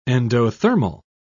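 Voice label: "endothermal", British English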